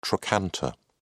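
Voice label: "trochanter", British English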